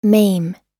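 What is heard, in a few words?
"maim", American English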